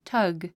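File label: "tug", English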